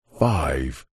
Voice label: radio male voice says five processed

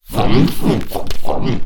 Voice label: Alien Scientist; little robot sound factory

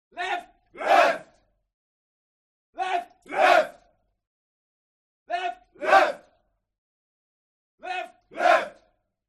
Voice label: CROWD Military Shout English "Left"; HUMAN; smartsound

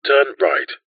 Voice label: technology sat nav voice male uk says turn right

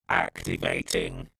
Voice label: science fiction robot says activating

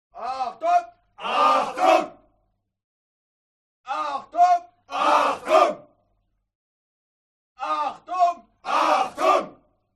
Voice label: HUMAN CROWD Military Shout German Attention; smartsound

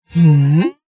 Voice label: computer voice female "hmm"

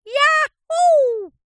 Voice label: cartoon voice high pitched says yahoo

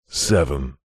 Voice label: radio male voice says seven processed

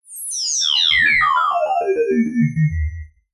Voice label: science fiction robot stutter voice

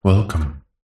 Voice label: science fiction system voice male says welcome

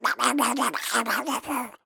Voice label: cartoon duck voiced by human speaking

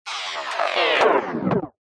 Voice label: science fiction robot glitch voice

